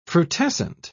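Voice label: "frutescent", British English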